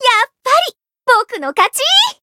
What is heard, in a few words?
win, jp; bivette